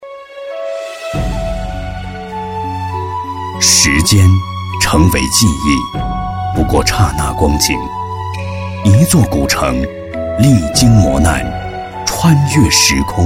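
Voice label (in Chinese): 男国大音-纪录片; 平遥古城纪录片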